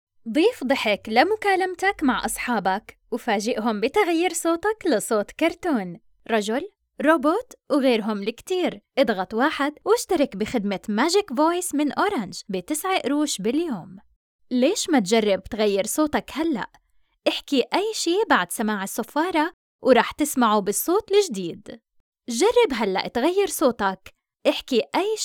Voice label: Jordanian